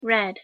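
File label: "red", English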